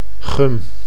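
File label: "gum", Dutch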